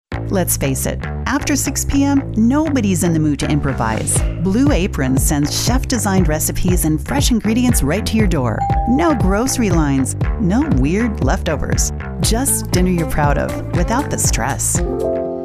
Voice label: Commercial; female voice actor